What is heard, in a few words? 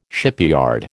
"shipyard", American